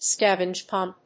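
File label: "scavenge pump", English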